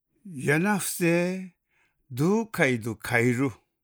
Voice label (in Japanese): 八重山の言葉; 悪口ヤナフッェー 自分ドゥーカイドゥ 帰カイル。; 黄金言葉; 黄金言葉カレンダー